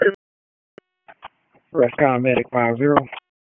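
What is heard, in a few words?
EMS Dispatch; wake; p25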